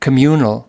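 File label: "communal", spoken word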